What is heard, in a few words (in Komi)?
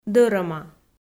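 "дӧрӧма", Komi, female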